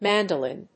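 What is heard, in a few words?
"mandolin", English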